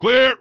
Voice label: punk enemy down, vox; Dystopia; vox new